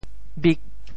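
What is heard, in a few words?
"bik8", Teochew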